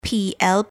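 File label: "pl", English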